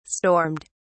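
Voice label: "stormed", British English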